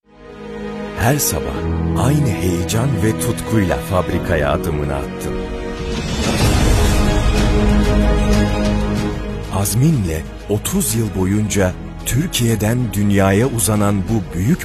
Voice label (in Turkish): seslendirme; mercedes